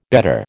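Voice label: "bettor", American English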